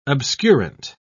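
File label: "obscurant", British English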